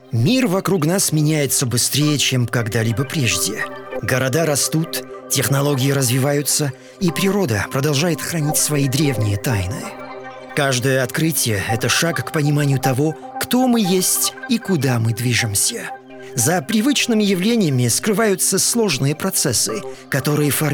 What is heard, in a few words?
male russian commercial